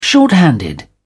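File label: "short-handed", British English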